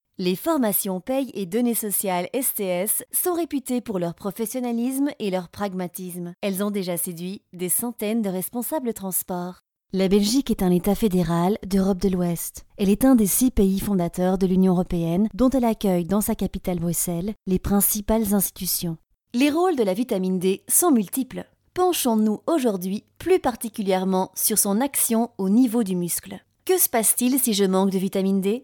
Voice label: Female French Corporate Compilation